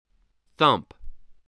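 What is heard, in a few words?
"thump", English word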